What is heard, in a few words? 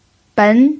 "ben2", pinyin